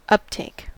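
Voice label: "uptake", American English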